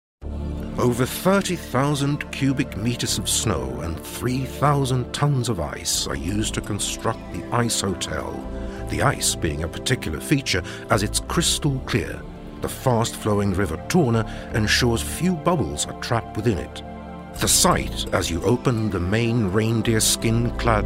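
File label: Swedish English Accented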